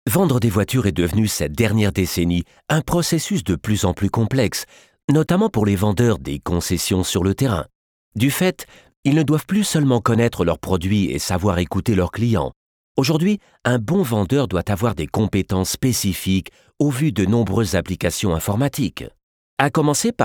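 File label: French voiceover; sprecher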